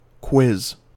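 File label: "quiz", US English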